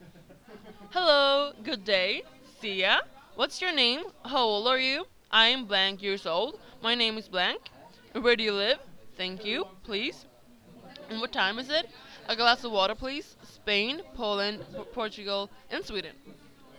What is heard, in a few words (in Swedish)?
engelska; COMENIUS